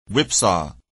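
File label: "whipsaw", English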